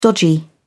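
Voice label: "dodgy", British English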